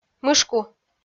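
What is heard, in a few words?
"мышку", Komi-Zyrian, female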